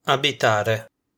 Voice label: "abitare", Italian